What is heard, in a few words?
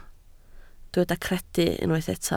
"credu", Welsh